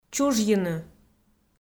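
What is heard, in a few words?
"чужйыны", Komi, female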